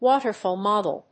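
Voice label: "waterfall model", English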